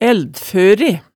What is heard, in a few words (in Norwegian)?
"ældføre", hemsedalsmaalet tale